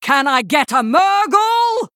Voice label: Female Night Elf Play